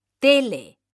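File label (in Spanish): "TELE" Spanish, female; mekanta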